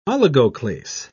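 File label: "oligoclase", British English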